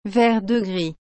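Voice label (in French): "vert de gris", French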